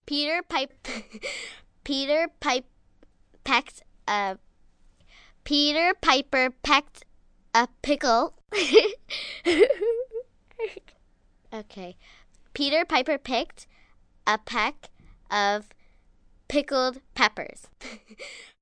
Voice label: Tongue Twister, rhyme, 1 girl, funny, comical, kid, child, female; 'Peter Piper'; human voice; Talking; VoiceBox